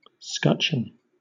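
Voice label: "scutcheon" English, British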